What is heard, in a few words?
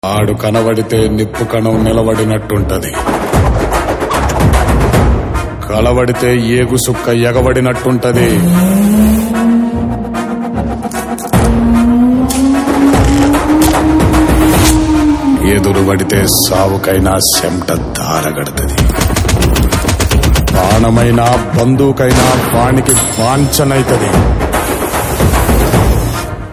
Dialogue Ringtone; RRR; NTR